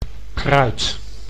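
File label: "kruid", Dutch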